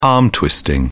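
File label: "arm-twisting", British English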